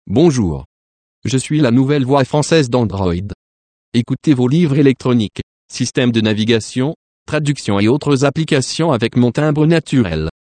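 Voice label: intro French Canadian male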